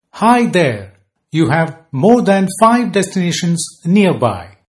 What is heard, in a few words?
more than five, male